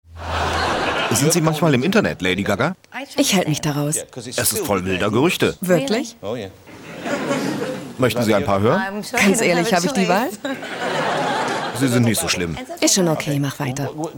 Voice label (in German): Synchron; ARTE; Queens of Pop